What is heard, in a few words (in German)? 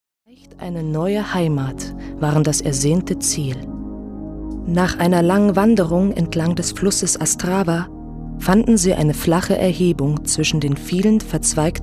Doku